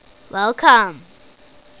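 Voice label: "welcome", spoken; voice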